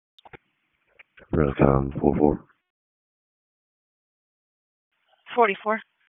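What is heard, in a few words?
Wake County EMS Dispatch, p25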